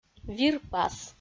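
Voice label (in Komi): "вирпас", Komi, female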